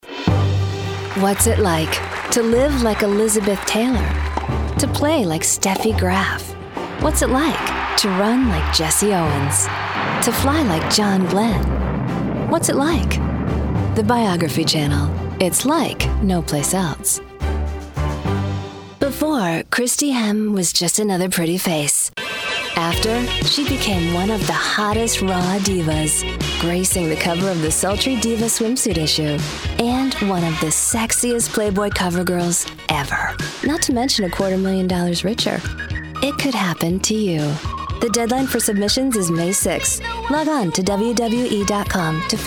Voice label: promo women

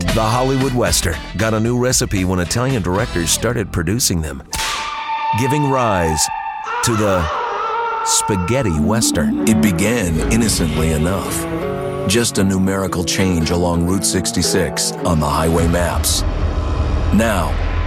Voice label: narration

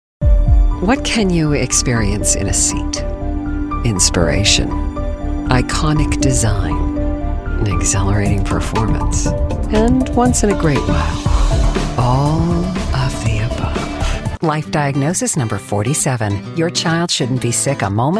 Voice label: commercial, commercial women

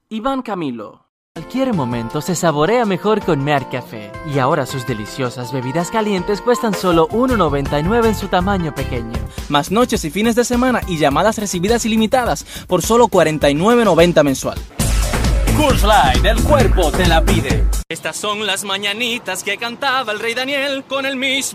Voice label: languages spanish; languages and accents

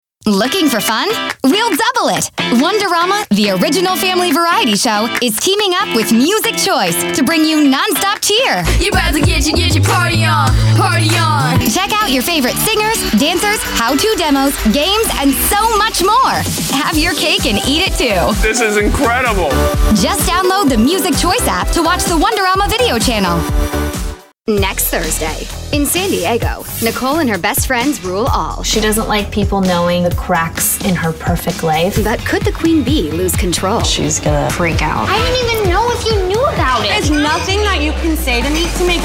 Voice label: promo women

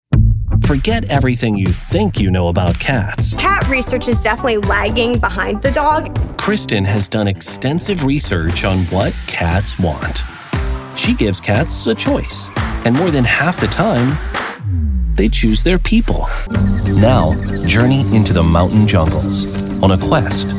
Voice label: narration men

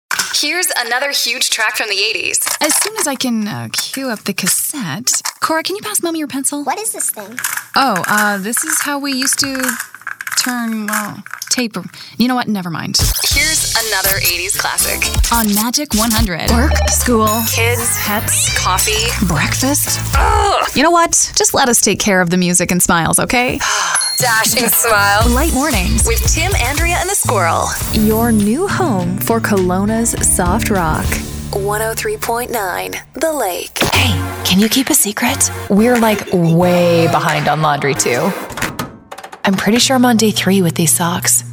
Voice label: radio imaging women ac

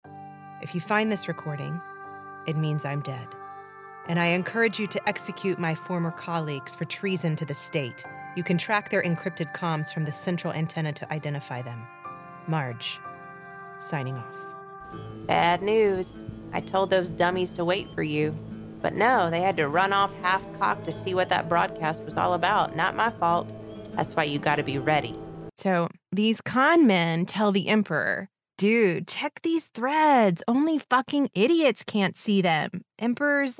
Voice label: animation women

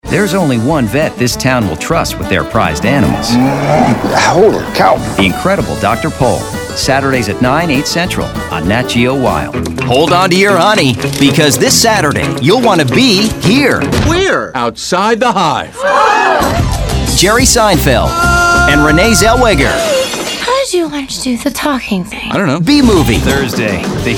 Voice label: promo men

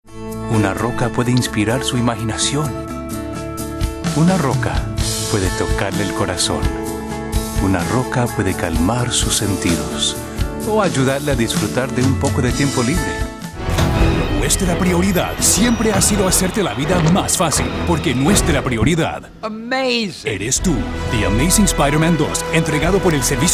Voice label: spanish commercial

